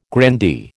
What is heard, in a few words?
"grandee", American